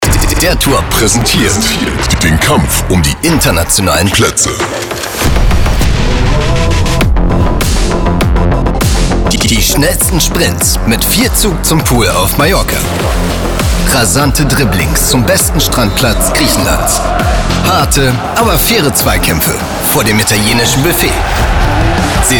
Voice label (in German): Funkspot; DERTOUR; Koelner Stadion; Internationale Plaetze